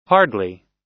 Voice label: "hardly", us